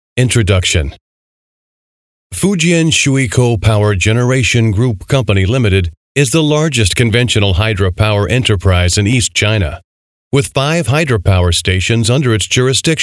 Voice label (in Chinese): 开场介绍 电台; 开场介绍审定稿